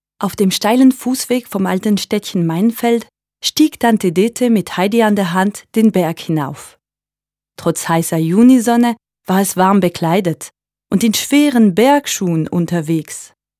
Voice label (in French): allemand; casting de voix-off; taurus-studio